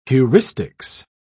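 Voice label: "heuristics", English, British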